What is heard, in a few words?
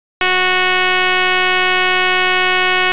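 Dispatch, p25; WSO DISP 1; wake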